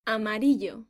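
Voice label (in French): "jaune", French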